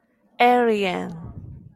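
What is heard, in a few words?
"alien", Japanese, female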